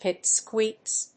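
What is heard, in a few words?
"pipsqueaks", English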